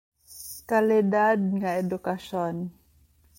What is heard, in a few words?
Cebuano